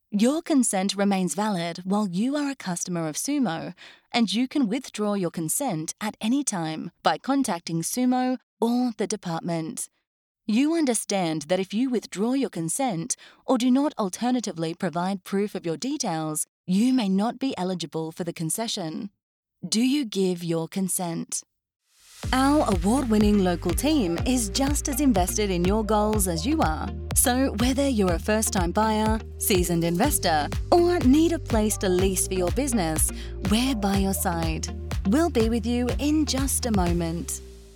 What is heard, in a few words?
english australian